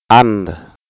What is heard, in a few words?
"and" accent, Wolof